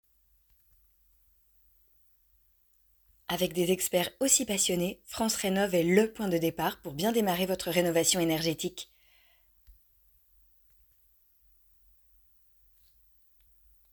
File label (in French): voix off